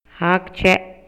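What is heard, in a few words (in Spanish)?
Maya, Yucatán, male; MOVIMIENTO; INALI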